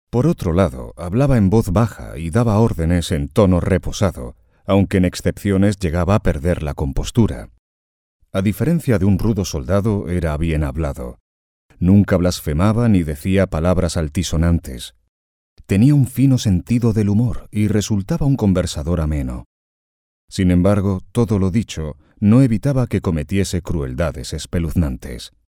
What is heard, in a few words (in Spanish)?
locutor spanish voiceover